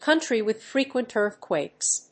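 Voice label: "country with frequent earthquakes", English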